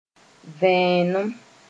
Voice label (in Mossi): "vẽenem", moore